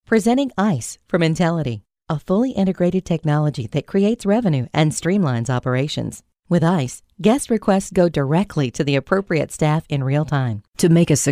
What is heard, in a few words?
narration mixed dialect